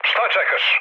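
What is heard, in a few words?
Serbian attack position mood low, speech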